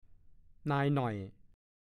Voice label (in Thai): "นาบ", ภาษาโคราช; 1. ตี เฆี่ยน